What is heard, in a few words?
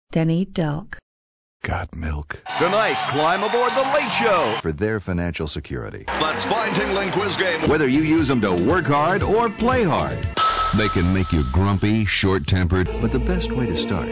male voice; voice talent; television and radio commercials, industrials, cd-roms, cartoon